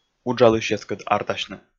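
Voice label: "уджалысьяскӧд артасьны", Komi, male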